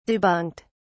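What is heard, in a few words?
"debunked", English, British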